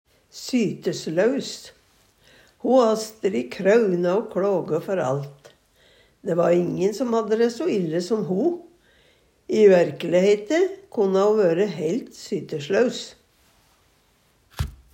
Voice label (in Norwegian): syteslaus, Numedalsmål